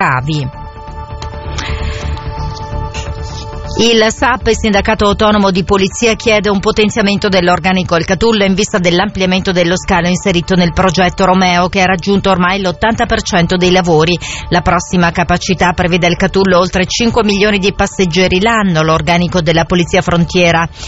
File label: Radio; verona